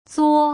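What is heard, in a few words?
"zuo", Putonghua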